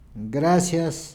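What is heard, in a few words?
"gracias", Spanish; gleitlaute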